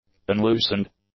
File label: "unloosened", English; adjectives